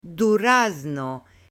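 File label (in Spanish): "DURAZNO", Spanish; ALIMENTOS; AppLenguaje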